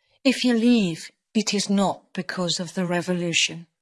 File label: Voice Acting es F